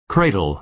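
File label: "cradle", American English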